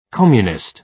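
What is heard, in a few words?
"communist", British English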